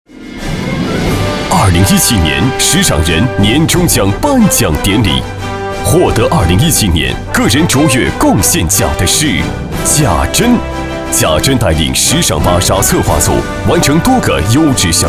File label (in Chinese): 男 颁奖; 芭莎颁奖